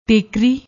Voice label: "tekri", Punjabi; ਟੇਕਰੀ